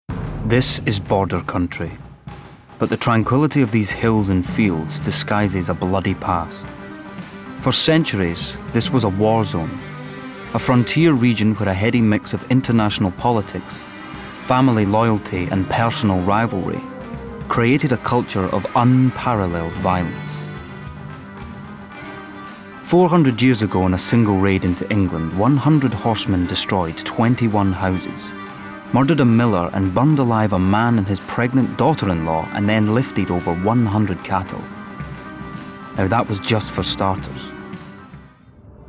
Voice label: Documentary; Natural Accent: Scottish